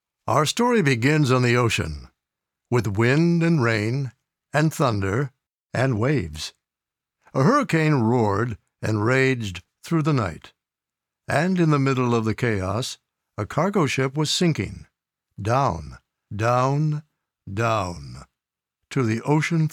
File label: Audiobook, male voice; Audiobook Demo